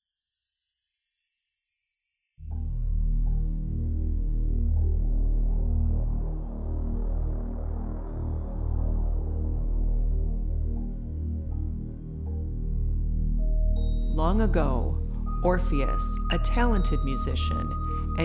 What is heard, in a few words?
full with voiceover; orpheus